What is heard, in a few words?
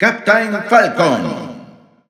Spanish EU Announcer; Captain Falcon; SSBU